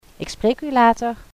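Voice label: I'll Talk To You Later, Dutch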